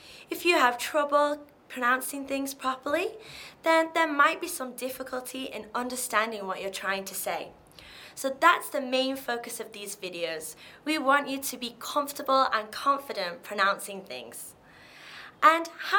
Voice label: English, GIỌNG MẪU THU ÂM ĐỌC VOICE